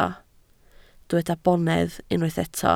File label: "bonedd", Welsh